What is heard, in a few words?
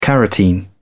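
"carotene", British English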